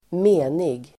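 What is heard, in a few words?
"menig", Swedish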